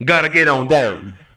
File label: male fx vox, vocal house, c; PS-28 Vocal House Samples